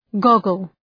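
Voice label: "goggle", English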